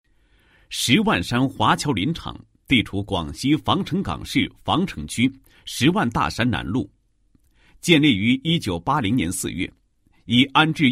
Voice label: 男 工作报告; 十万山华侨林场住房难问题情况调查

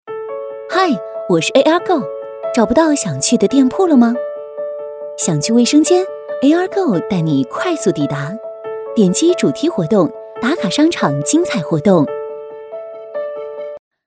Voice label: 女 宣传片 商务科技

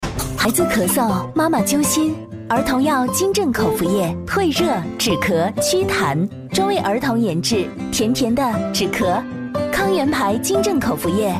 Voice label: 女 口服液; 金振口服液; cctv1

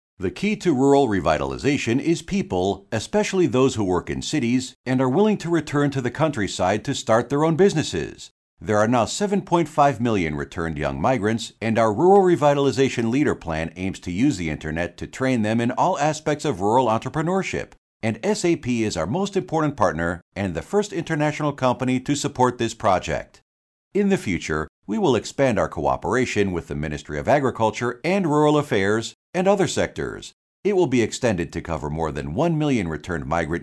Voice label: 口语化 受访

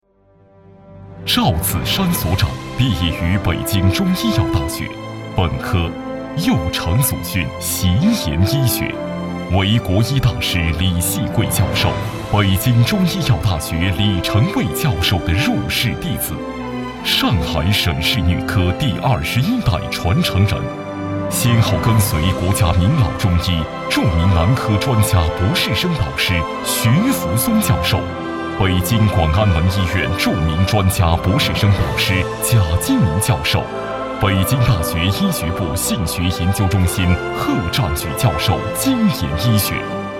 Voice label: 男 专题类人物介绍

